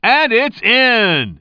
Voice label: commentator